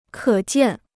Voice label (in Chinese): "可见", Chinese